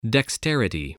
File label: "dexterity", english spelling